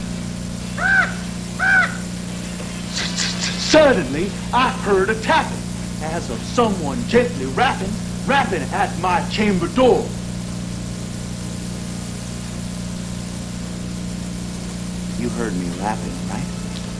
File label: suddenly stutter